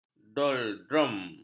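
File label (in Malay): "doldrum", Malay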